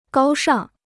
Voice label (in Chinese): "高尚", Chinese